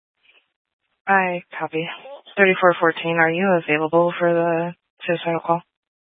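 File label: Police Dispatch, Scanner audio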